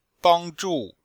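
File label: "bang1zhu4", Chinese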